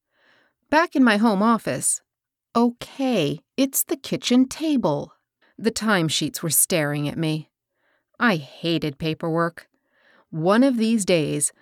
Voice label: Audiobook, Voice Over

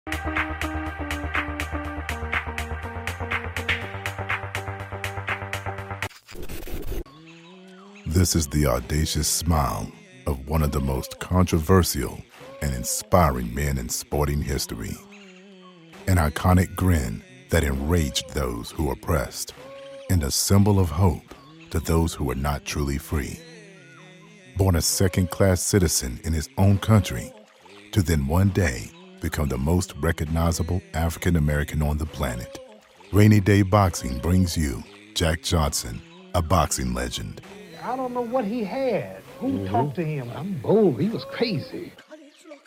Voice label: Documentary, Voice Over

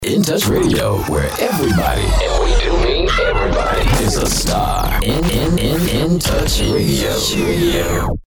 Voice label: RADIO DROP; N TOUCH RADIO

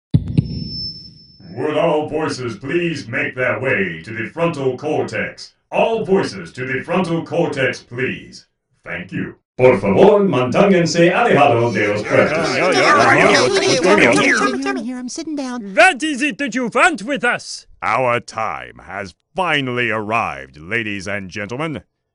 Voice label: Animation VO

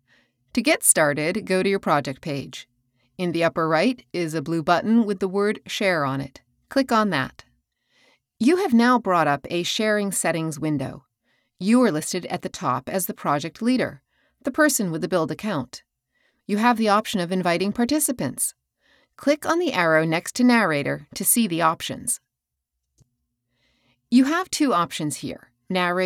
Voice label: explainer

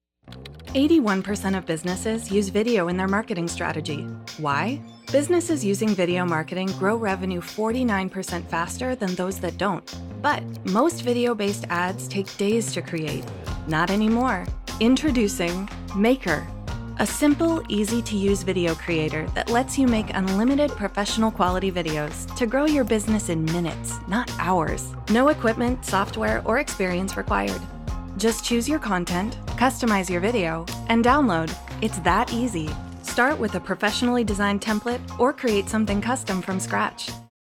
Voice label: Commercial Explainer

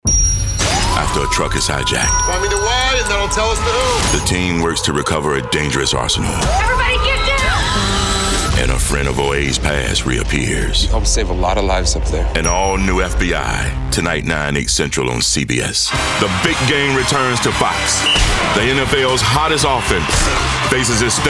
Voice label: Promo

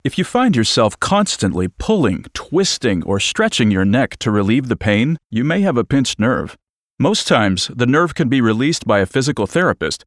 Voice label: PSA Pinched Nerve

